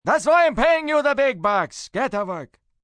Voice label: Paying Big Bucks, male, Jewish accent; Attitude; human voice speaking; Talking